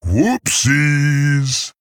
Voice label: Male Tauren Oops